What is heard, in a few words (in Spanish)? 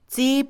"bañarse", Mixe, Mujer; Cuerpo; INALI